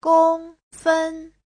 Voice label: "gong1 fen1", chinese; 公分; centimet